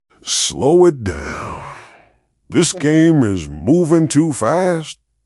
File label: upset; fertig classic games